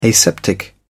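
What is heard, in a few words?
"aseptic", British English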